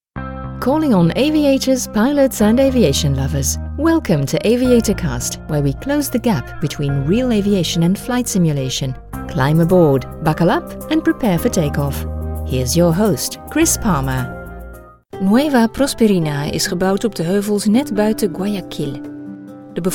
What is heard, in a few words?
PODCASTING DUTCH